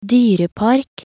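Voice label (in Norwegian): "dyrepark", Norwegian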